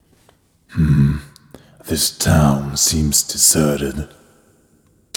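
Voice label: ambience voice over; netent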